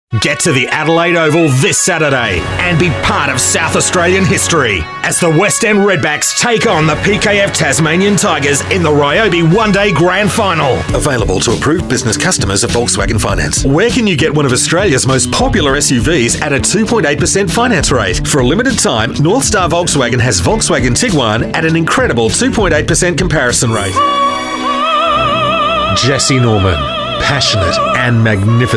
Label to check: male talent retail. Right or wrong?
Right